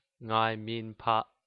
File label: "understand", Hakka